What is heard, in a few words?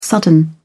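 "sudden", British English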